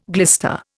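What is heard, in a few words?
"glitch", Indian; Dictionary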